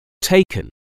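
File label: "taken", English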